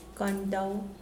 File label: "stairs", Mokilese